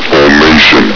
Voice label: "formation", Combine soldier; hl2